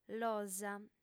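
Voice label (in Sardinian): "losa", Sardinian, female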